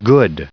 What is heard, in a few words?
"good" en-us recite